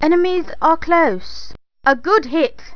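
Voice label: female elf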